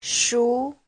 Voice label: "shu2", Chinese; Giáo trình Hán ngữ